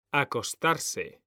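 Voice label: "acostarse", Spanish, male; mekanta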